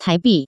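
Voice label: "taibi", Taiwan Mandarin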